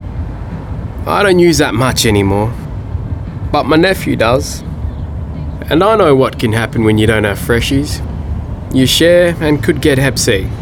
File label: Female radio; Look after your blood campaign